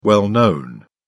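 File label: "well-known", British English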